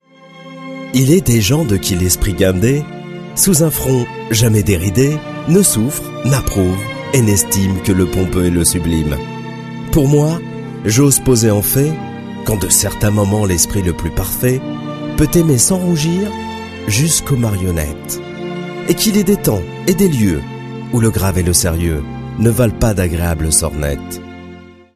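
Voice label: French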